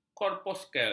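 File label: "korpuskel", Malay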